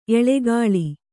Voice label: "ಎಳಕುಳಿ", Kannada

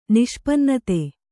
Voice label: "ನಿಷ್ಪನ್ನತೆ", Kannada

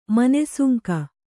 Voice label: "ಮನೆಸುಂಕ", Kannada

